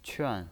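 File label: "quan" fourth tone, pinyin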